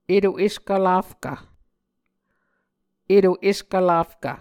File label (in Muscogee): "eto eskvlafkv", Muscogee